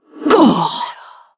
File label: Female Ethereal Death